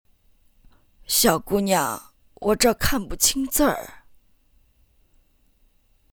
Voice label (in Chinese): 女 角色 老太太